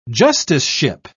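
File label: "justiceship", British English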